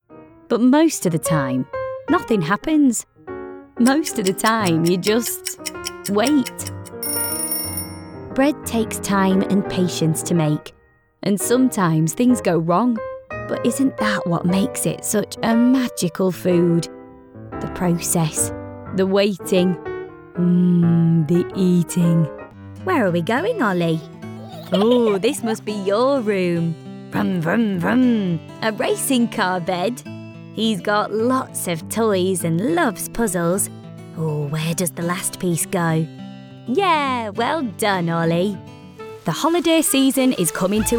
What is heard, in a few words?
english british narration